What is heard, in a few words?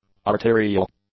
"arterial", English; adjectives